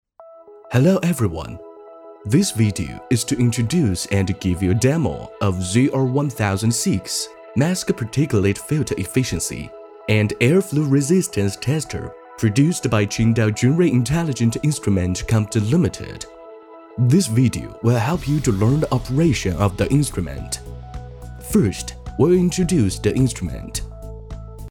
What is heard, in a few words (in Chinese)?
英语男 英语 机械设备使用说明